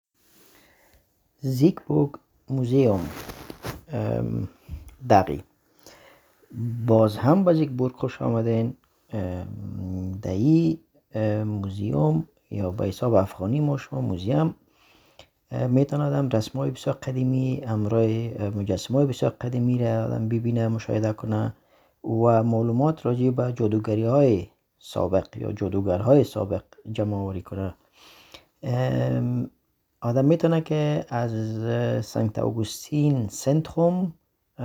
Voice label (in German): Afghanisch; Siegburg Museum